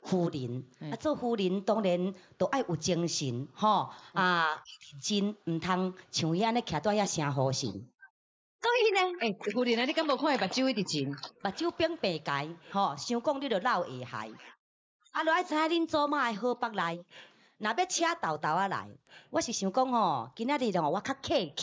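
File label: traditional female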